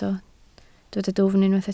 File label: "dwfn", Welsh